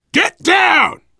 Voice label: down in front, survivor voice biker; Left 4 Dead 2

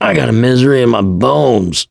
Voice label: going to die, survivor voice mechanic

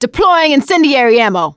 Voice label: incend ammo, voice; survivor; producer

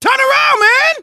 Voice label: watch out behind, survivor voice; manager; Left 4 Dead 2; left4dead2 dlc2

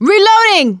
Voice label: reload intense, survivor voice; producer

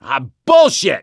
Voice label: reaction negative, voice; gambler

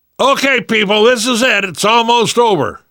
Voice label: radio used generic, namvet, survivor voice; Left 4 Dead 2; left4dead2 dlc3

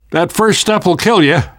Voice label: world hospital, namvet, survivor voice

